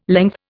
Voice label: "length", Indian